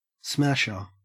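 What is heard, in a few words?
"smasher", Australian English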